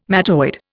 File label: "mattoid", Indian